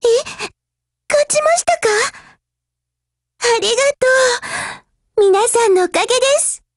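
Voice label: WIN Japanese, Voice; GF Alarm; FN49 Mod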